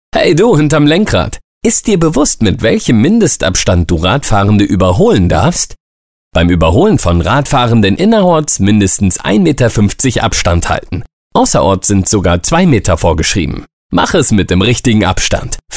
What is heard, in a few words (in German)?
Abstand halten ohne Musik